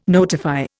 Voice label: "notify", Indian

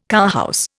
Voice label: "cowhouse", Indian

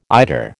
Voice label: "eider", American

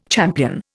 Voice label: "champion", Indian

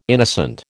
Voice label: "innocent", American

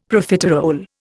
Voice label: "Profiterole", Indian; Dictionary

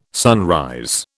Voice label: "sunrise", British

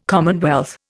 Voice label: "commonwealth", Indian

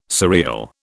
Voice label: "surreal", British

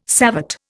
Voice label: "savate", Indian

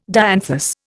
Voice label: "dianthus", Indian

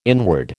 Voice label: "inward", American

